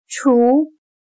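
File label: "厨" Chinese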